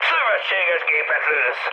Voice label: player friendly fire, mood high, speech hun